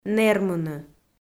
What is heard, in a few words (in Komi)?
"нэрмыны", Komi